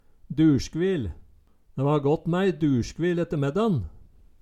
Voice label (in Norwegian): "duLsgvil", Numedalsmål